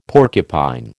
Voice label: "porcupine", American